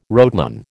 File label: "rotenone", American